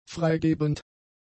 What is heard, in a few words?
"freigebend", German; adjectives